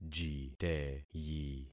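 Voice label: "jiteyi", concatenated syllables; Affixing experiment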